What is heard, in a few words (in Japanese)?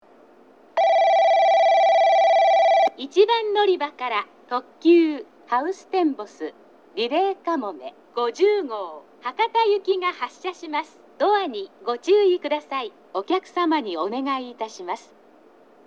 1番のりば発車放送; ハウステンボス; 1番のりば発車放送（ハウステンボス・リレーかもめ50号 博多行き）